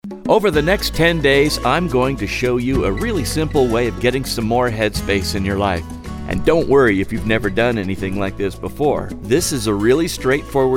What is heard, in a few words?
Narration